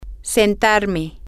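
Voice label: to seat myself, Spanish, female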